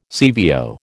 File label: "CVO", American